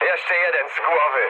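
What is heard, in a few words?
player killed enemy mood med, speech Polish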